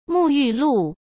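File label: "沐浴露", Mandarin Chinese; chinese flashcards; hsk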